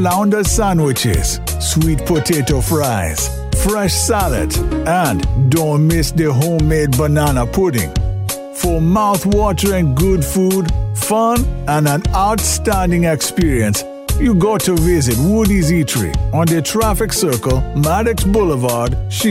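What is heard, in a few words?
Jamaican Island Accent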